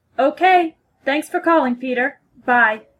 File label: phone formal